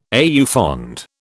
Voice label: "au fond", British

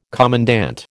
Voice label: "commandant", American

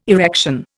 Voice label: "erection", Indian

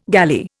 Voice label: "galley", Indian

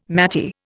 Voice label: "maty", Indian

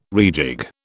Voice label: "rejig", British

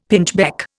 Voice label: "pinchbeck", Indian; Dictionary

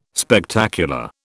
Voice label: "Spectacular", British

